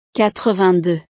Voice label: "quatre-vingt-deux", frances

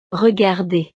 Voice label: "regarder", frances

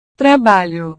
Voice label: "trabalho", Portugués; trabajo

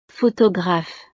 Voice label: "photographe", frances; profesiones

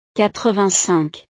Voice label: "quatre-vingt-cinq", frances

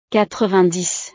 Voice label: "quatre-vingt-dix", frances

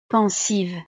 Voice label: "pensive", frances; estados animo

